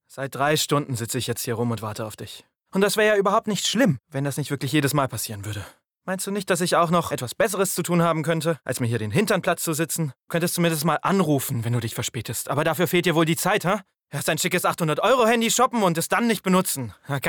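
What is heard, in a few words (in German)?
verärgert; LOFT VOICES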